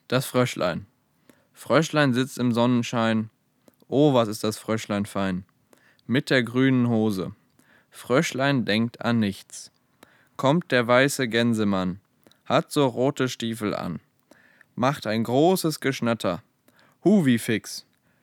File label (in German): Hochdeutsch; Muehlenteich; Plattdeutscher Weg; Nottuln